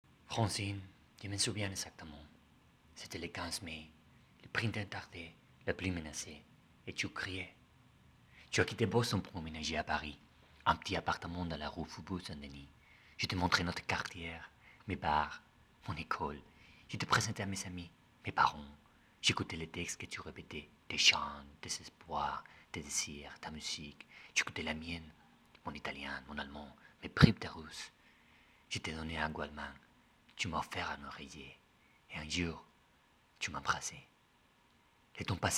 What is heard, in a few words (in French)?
Français